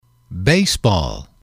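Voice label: "baseball", male, English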